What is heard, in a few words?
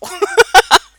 female NPC; server-nalc